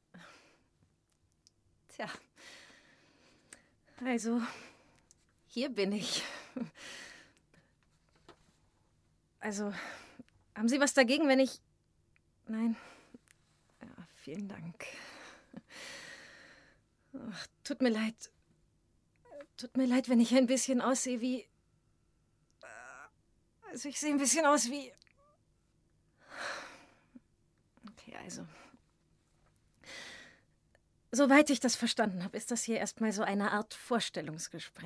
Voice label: Monolog

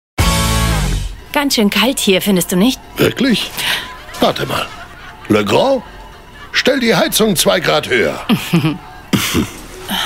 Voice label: Werbung Synchron Thermostat; Legrand

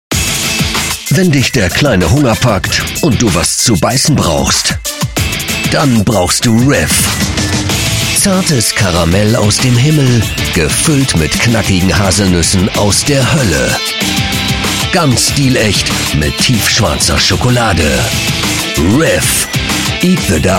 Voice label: Werbung kraftvoll kernig dark

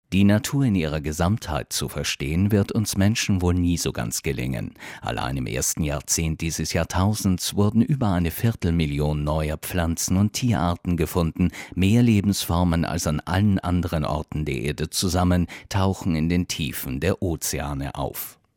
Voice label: Doku Natur

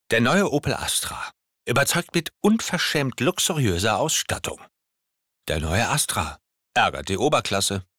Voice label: Werbung, franzoesisch

